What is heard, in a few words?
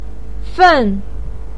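"fen" fourth tone, Mandarin Chinese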